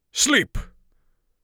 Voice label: sleep, Mage Voice; Mage Voice Pack